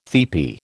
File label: "tepee", American